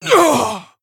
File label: Female Orc Death; Garona